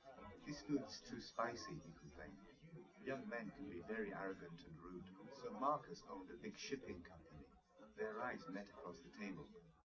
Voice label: male, live rec; SiSEC11; underdetermined

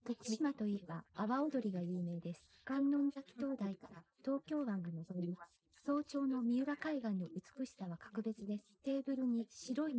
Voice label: female inst sim; SiSEC11; underdetermined

